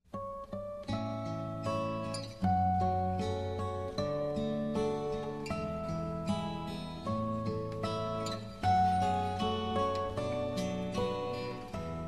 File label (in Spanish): Romantica cariñosa M; Variadas em Espanhol; Masc